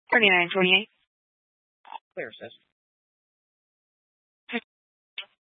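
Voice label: Police Dispatch, scanner audio recording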